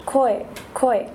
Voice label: koi female